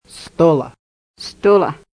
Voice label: "stola", lakota